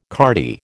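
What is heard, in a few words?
"cardie", American English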